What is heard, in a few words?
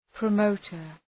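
"promoter", English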